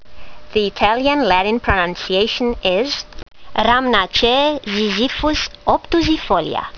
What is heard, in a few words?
latin; Ziziphus obtusifolia